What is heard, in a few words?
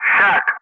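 "shack", English; Ka-50; Wingman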